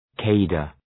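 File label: "cadre", English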